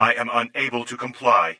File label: droid voice i am unable to comply